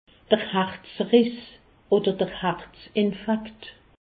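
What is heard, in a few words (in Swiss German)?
"herzriss", Alsace, Haut Rhin; infarctus; medecine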